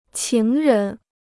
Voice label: "情人", Chinese

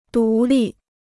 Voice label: "独立", Chinese

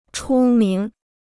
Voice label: "出名", Chinese

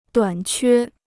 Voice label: "短缺", Chinese

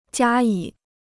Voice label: "加以", Chinese

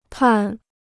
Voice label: "判", Chinese

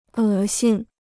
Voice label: "恶心", Chinese

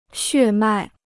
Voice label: "血脉", Chinese

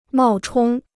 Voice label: "冒充", Chinese

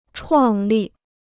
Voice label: "创立", Chinese

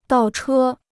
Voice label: "倒车", Chinese

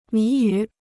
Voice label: "谜语", Chinese

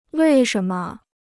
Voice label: "为什么", Chinese

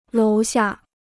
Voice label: "楼下", Chinese

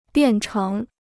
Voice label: "变成", Chinese

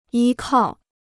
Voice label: "依靠", Chinese

